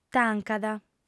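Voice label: "tancat", female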